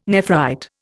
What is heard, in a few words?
"nephrite", Indian